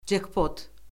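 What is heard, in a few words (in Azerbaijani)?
"cekpot", Azerbaijani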